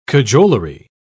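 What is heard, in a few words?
"cajolery", English, British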